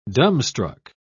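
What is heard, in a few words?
"dumbstruck", British English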